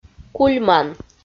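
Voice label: "кульман", Komi, female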